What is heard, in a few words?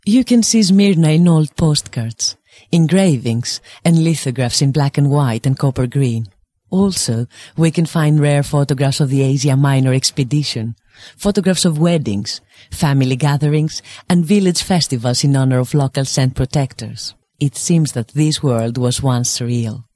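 Greek, English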